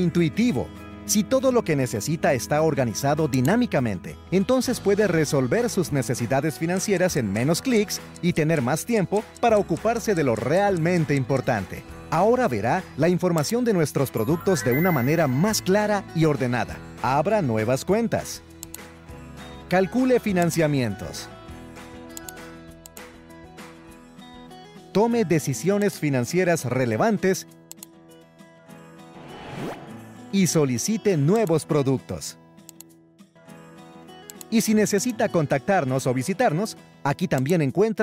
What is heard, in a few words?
E Learning web banco